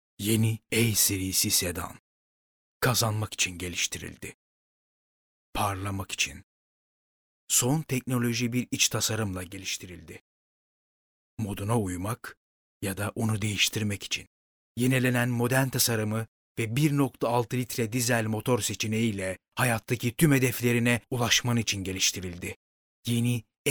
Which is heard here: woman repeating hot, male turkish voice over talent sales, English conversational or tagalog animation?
male turkish voice over talent sales